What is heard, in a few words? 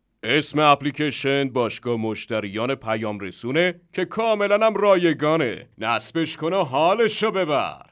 narrator